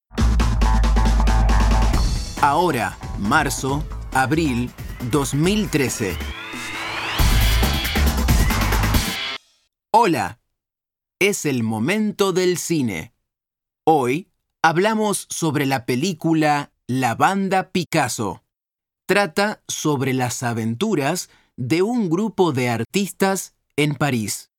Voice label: Argentinian Spanish eLearning